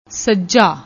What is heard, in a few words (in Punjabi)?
"sajaa", Punjabi